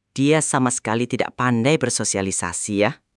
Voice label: indonesian phrases

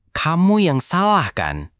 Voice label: indonesian phrases

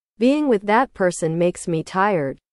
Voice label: english

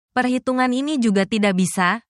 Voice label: indonesian phrases

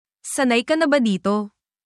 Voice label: tagalog filipino phrases, at work

